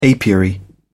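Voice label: "apiary", British English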